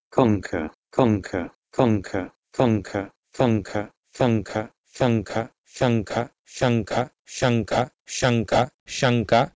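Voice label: Proto-Indo-European konke to Sanskrit SaNka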